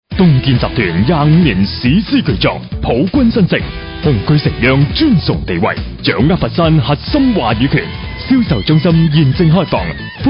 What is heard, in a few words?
cantonese chinese talent cantones locutor chino